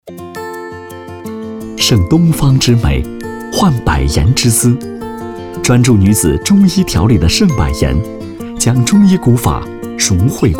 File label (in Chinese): 广告 温暖风格; 盛百颜